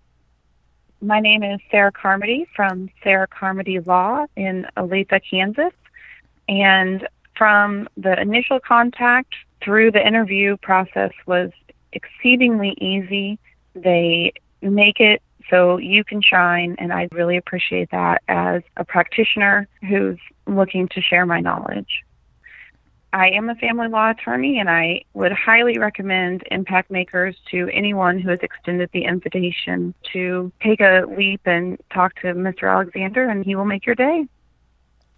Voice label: Testimonial; Impact Makers Radio